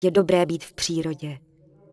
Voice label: Night Elf Female Standard NPC Farewell; wowpreklad; czwow

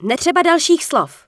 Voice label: Night Elf Female Priestess NPC Farewell; wowpreklad; czwow

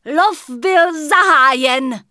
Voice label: Night Elf Female Attack My Target; wowpreklad; czwow; NightElfVocalFemale

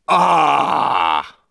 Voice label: Witch Doctor Warcry; wowpreklad; czwow

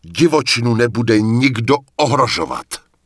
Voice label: Night Elf Male Attack My Target; wowpreklad; czwow; NightElfVocalMale

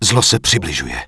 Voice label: Night Elf Male Follow Me; wowpreklad; czwow; NightElfVocalMale

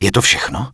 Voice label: Night Elf Male Official NPC Farewell; wowpreklad; czwow

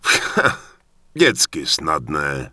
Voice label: Human Male Warrior NPC Vendor; wowpreklad; czwow

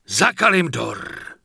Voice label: Night Elf Male Goodbye; wowpreklad; czwow; NightElfVocalMale

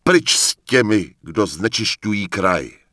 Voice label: Night Elf Male Standard NPC Farewell; wowpreklad; czwow

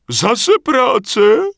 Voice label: Peasant What; wowpreklad; czwow

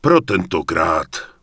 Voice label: Human Male Warrior NPC Farewell; wowpreklad; czwow

